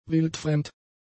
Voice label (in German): "wildfremd", German; adjectives